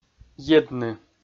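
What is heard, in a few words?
"едны", Komi, male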